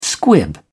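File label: "squib", British English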